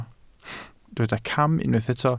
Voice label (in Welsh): "cam", Welsh